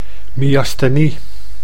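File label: "myasthenie", Dutch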